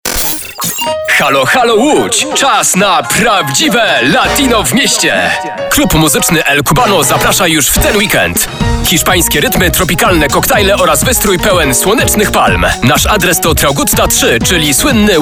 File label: spot